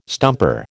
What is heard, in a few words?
"stumper", American